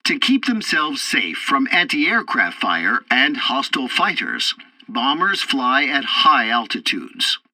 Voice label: tutorial bomber intro, english; War Thunder